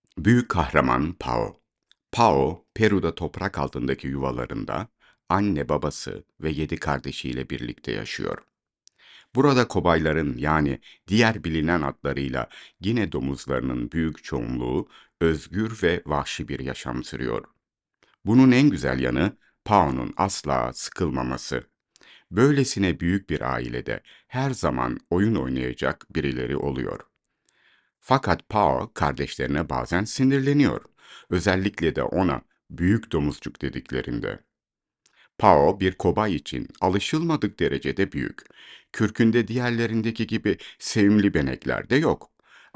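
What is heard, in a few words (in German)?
Türkisch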